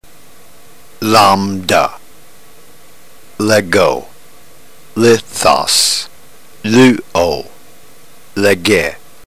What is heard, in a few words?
drill, ntgreek